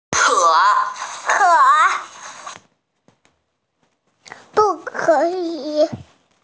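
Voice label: "can", hearing impaired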